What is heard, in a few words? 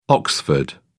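"oxford", British English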